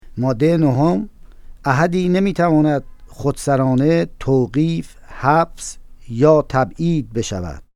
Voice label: Persian

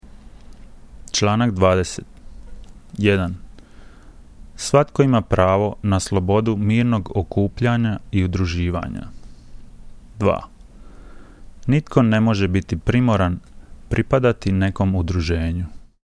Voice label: Croatian

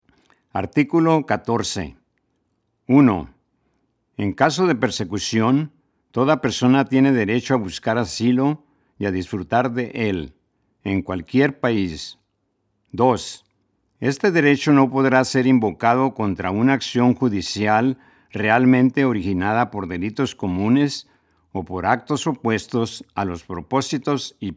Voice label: Spanish

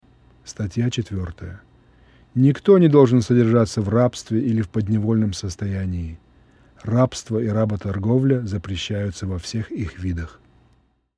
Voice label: Russian

